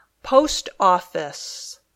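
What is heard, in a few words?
"post office", English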